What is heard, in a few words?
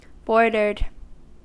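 "bordered", US English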